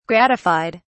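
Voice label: "gratified", British English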